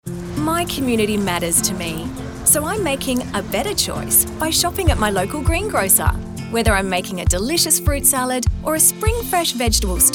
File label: Radio ad